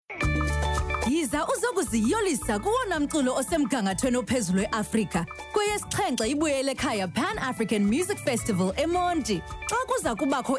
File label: Xhosa Hard Sell